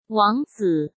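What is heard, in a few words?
"王子", Mandarin Chinese; chinese flashcards; hsk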